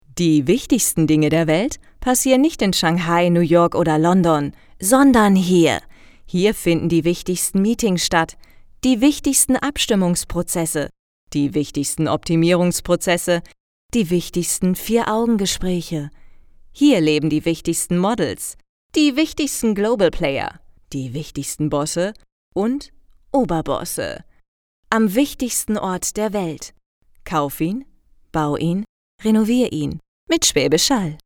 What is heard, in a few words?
Commercial; Schwaebisch Hall